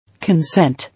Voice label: "Consent", English

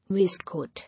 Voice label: "Waist coat", English

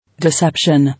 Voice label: "Deception", English

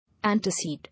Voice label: "Antecede", English

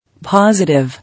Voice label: "Positive", English

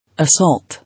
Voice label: "Assault", English

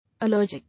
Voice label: "Allergic", English

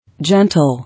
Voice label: "Gentle", English

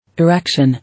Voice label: "Erection", English

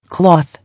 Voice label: "Cloth", English

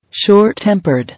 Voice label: "Short tempered", English

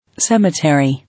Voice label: "Cemetery", English

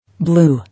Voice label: "Blue", English

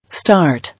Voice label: "Start", English

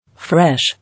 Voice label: "Fresh", English

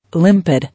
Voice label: "Limpid", English

